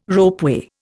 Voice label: "ropeway", Indian